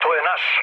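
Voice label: Serbian player friendly fire mood low; War Thunder; speech srb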